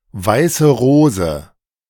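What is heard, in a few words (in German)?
"Weiße Rose", German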